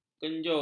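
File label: "kenjur", Malay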